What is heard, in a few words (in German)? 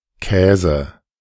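"Kaese", german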